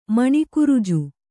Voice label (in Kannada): "ಮಣಿಕುರುಜು", Kannada